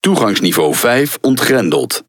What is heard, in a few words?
NL Speech Access Level; World Of Warships